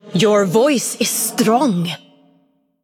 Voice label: Female Iron Vrykul Emote Well Played

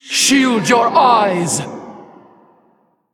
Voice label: Female Elemental Attack

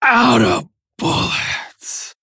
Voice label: Female Tauren Death

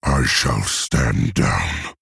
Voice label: Male Orc; Thrall; Concede

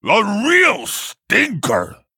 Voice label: Male Tuskar Attack

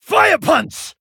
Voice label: Male Pandaren Attack

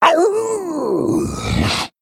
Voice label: Male Worgen Death

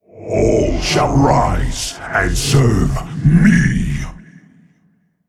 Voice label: Male Eternal One Play

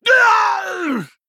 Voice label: Male Troll Death

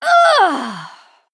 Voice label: Female Night Elf Death; Aranna Starseeker

